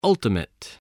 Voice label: "ultimate", English spelling voice